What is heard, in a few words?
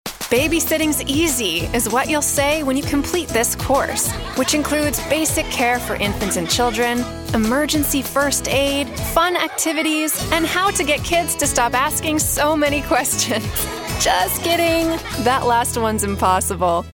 Fun Friendly Upbeat Baby Sitting Course eLearning; eLearning Voice Over; Fun, Engaging - Video Course for Baby Sitting